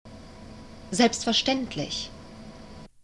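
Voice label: "selbstverstaendlich", German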